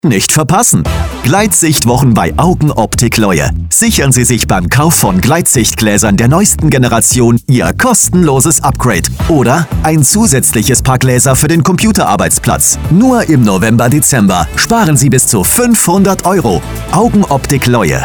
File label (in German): Funkspot; Leue